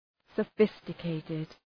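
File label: "sophisticated", English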